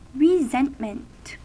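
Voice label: "resentment", American English; pronunciations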